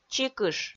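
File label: "чикыш", Komi, female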